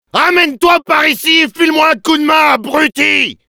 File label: help me defend, fr; Demoman